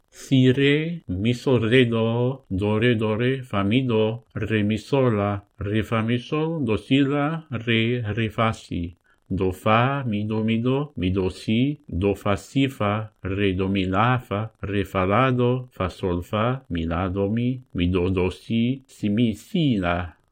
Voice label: Solresol